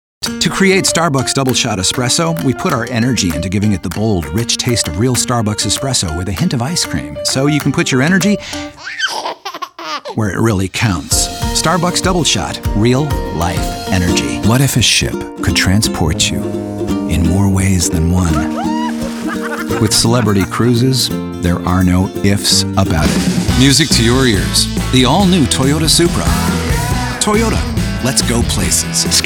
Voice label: men, commercial; Approachable, relatable, and authoritative.